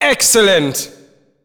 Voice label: "Excellent" (Echo); DDR Extreme SFX; evaluation a; Dance Guru's DDR Archive